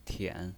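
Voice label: "tian", pinyin voice, third tone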